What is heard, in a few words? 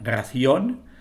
"ración", es; ración [raˈθjon]